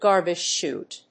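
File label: "garbage chute", English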